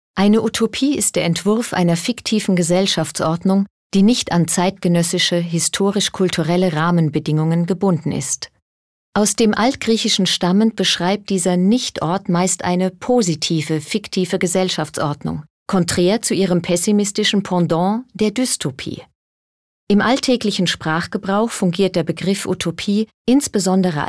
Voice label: Neutral

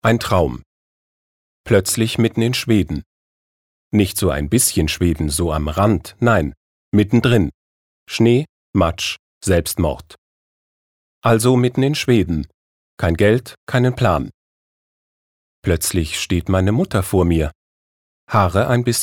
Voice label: Schauspiel Neutral

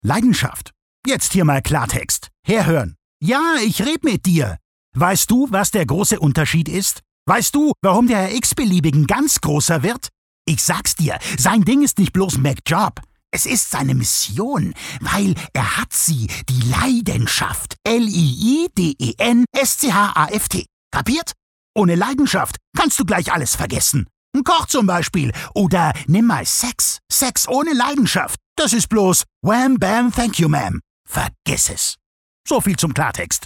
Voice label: Schauspiel Energisch

